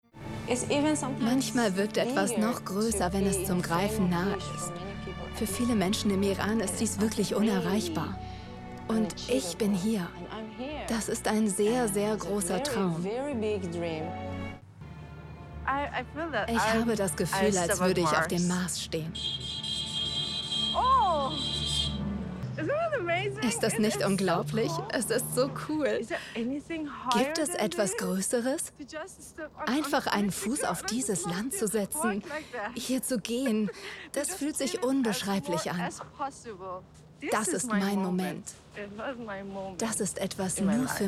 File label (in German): voice over; expedition suedpol